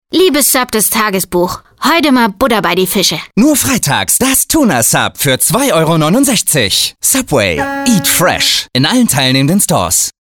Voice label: Werbung Norddeutsch Funk; Subway Radiow.

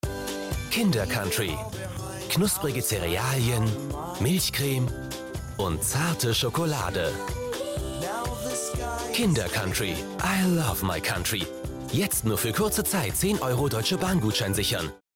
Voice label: Werbung KinderCountry

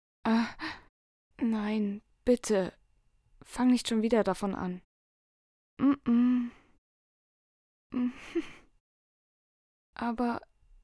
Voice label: Anime natuerliche Figur

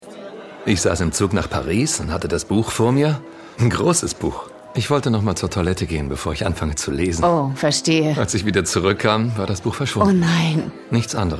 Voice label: Synchron sympathisch; DE; VerlorenesBuch

